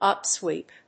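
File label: "upsweep", English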